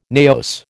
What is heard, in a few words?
"naos", American